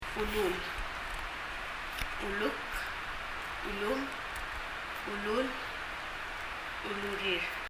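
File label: "ulul" Palauan, chest; 胸・chest; 発音; 身体の各部位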